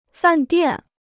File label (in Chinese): "饭店", Chinese